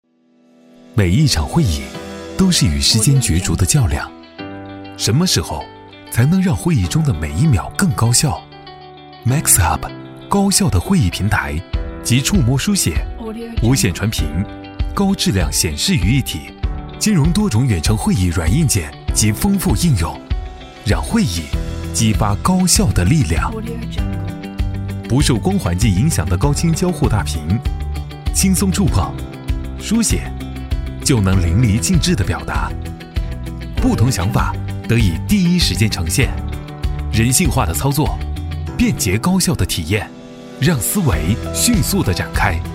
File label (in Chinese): 男 专题 产品宣传片; MAXHUB